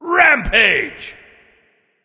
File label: "rampage", Announcer Killstreak